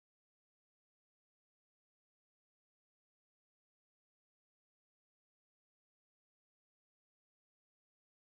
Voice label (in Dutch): gedicht nieuwjaarsreceptie